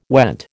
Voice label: "went", American